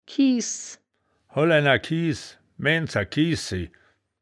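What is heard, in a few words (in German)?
"kiehs", wittlicher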